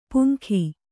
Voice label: "ಪುಂಗಿ", Kannada